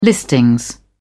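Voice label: "listing", British English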